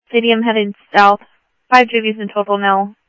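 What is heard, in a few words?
Mesa Police Department, scanner audio; MESA PD Superstn; Mesa Simulcast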